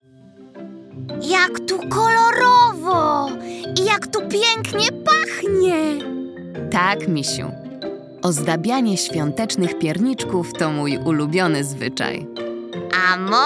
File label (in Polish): narracja; czasopismo mis; zima; rozmowa